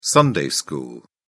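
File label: "sunday school", English, uk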